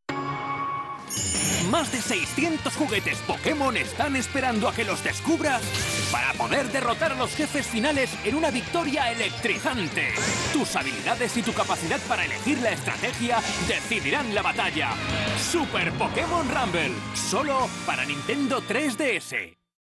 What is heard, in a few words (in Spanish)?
Spot español; Nintendo